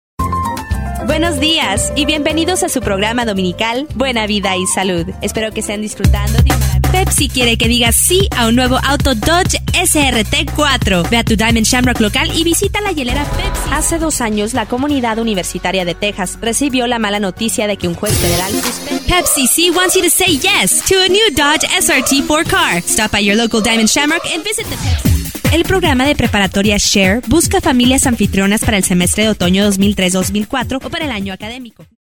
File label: Spanish, females